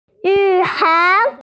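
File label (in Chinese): "nv3hai2", Mandarin Chinese, female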